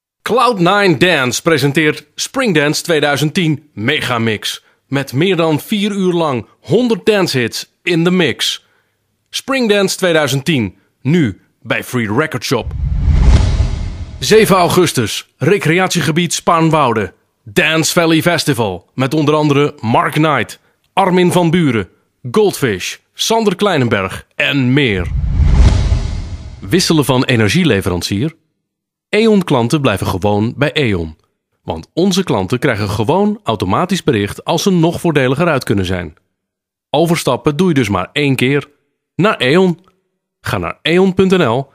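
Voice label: Dutch, male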